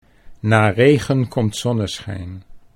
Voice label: "na regen komt", Dutch